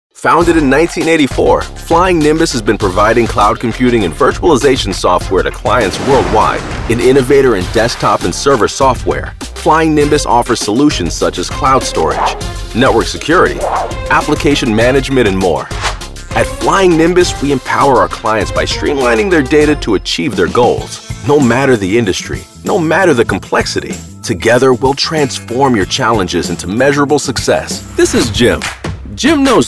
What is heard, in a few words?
corporate narration explainer business casual voice overs